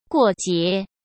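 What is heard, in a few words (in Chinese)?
"过节", Mandarin Chinese; hsk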